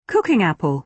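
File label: "cooking apple", British English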